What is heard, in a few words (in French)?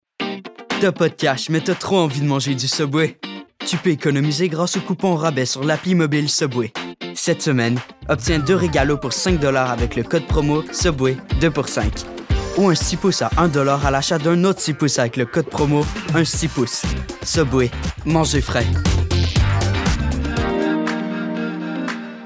Démo Publicités